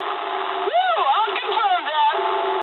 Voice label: eng player killed enemy mood med, speech eng

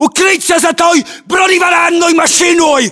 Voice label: order cover armored vehicle, ru; localized english

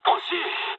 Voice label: bomb failure mood low, speech jp; War Thunder

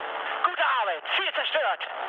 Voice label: bomb success, mood med, speech ge; War Thunder

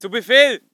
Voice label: voice ger infantry

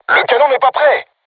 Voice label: voice message art reloading, crew tanks french

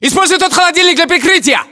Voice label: order cover fridge, ru

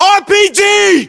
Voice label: threat rpg, localized english battlechatter us

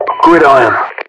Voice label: title gridiron, UK; mpvoice

